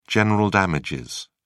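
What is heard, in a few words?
"general damages", British English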